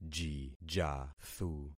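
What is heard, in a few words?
"jijathu", concatenated syllables; Affixing experiment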